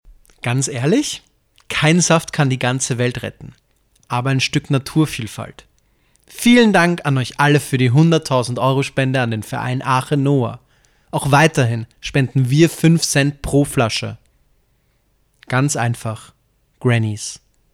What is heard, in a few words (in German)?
Werbung; Grannys